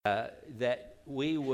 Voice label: announcements